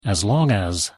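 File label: "as long as", English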